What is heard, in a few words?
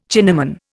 "Chinaman", Indian